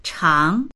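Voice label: "chang", Mandarin; 长; 長い、長さ、長所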